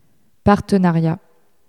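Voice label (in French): "partenariat", French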